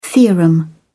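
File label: "theorem", British English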